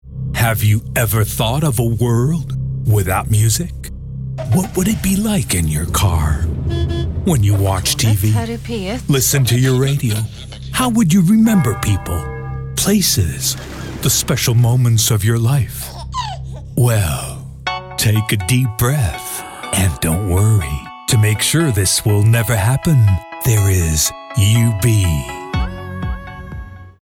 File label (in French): Voix Off Voice Over, US; Universal